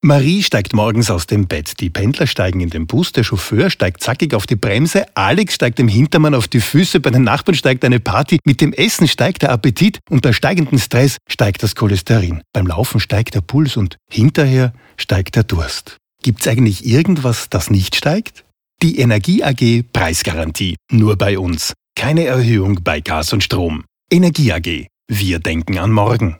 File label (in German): Spot